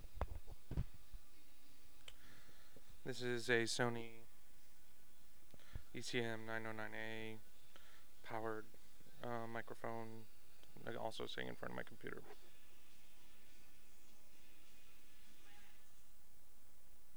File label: micromemo test with sony mic; xtrememac